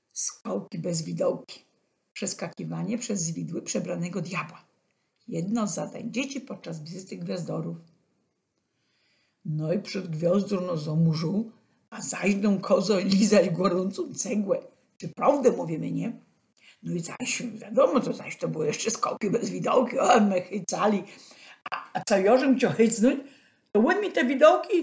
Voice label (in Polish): skolki bez widolki, gwarowy